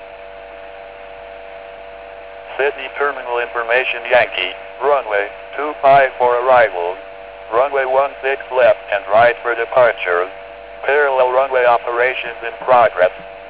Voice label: ATIS, airband